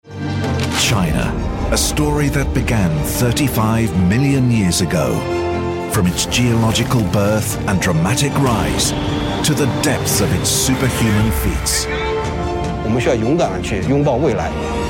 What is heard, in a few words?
trailer; bbc